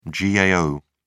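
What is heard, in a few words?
"gao", English, British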